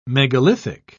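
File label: "megalithic", British English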